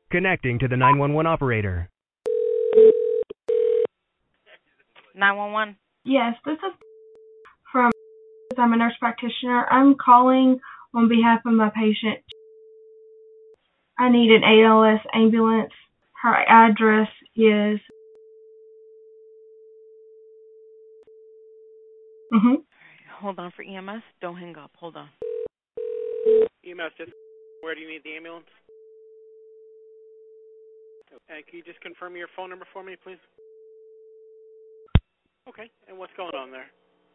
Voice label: Female with Shortness of Breath